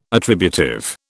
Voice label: "attributive", British